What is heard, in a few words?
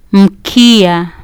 "mkia", Kiswahili